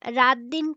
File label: Female